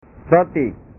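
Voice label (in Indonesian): "roti", Indonesian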